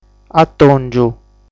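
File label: "Atòngiu", Sardinian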